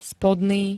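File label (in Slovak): "spodný", Slovenské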